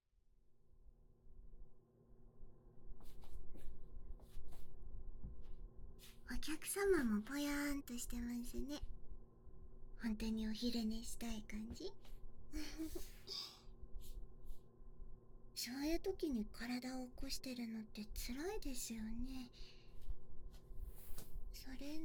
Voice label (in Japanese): すっきりオイルマッサージ, ASMR; しろなのお家コース